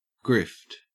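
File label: "grift", Australian English; pronunciations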